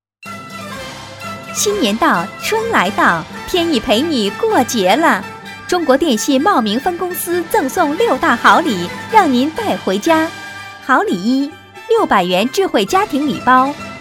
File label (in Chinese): 女 广告; 中国电信茂名分公司